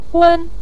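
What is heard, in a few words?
"gun", Mandarin Chinese, neutral tone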